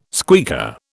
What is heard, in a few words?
"squeaker", British